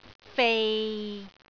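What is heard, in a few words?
"fei6", Cantonese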